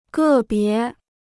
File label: "个别", Chinese